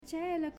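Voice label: "Cielo", Italian, female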